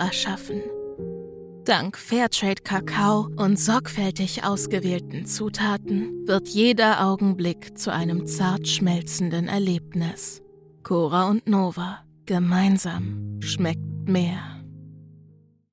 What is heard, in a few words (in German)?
Werbung Pralinen